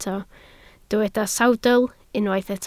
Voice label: "sawdl", Welsh

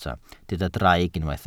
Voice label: "draig", Welsh

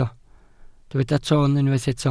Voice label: "toon", Welsh

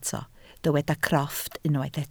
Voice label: "crofft", Welsh

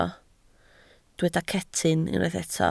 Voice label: "cetyn", Welsh

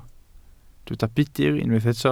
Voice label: "budr", Welsh

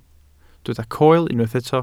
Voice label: "coel", welsh

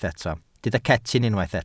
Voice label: "cetyn", Welsh

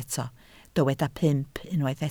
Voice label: "pump", Welsh

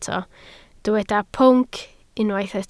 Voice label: "pwnc", Welsh

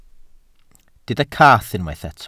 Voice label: "cath", Welsh

